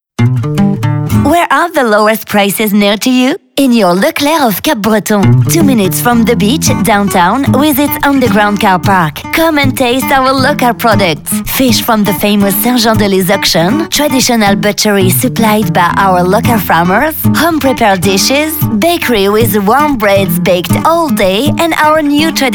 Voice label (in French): en anglais; leclerc